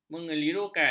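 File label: "mengelirukan", Malay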